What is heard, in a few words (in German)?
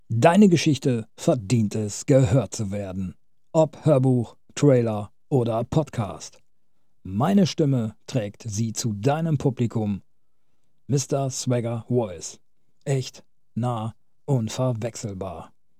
Werblich knackig